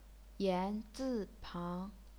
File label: yan zi pang, chinese pinyin